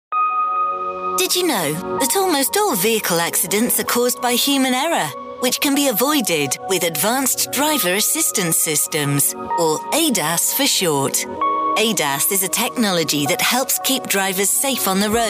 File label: explainer